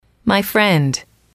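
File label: "friend", english, female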